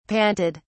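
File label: "panted", British English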